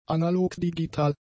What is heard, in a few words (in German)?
"analogdigital", German; adjectives